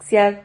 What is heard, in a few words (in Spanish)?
"xiad", Silabas